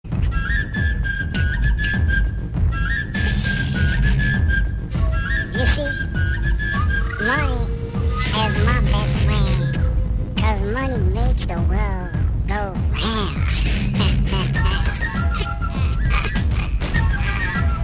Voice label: Funny Voice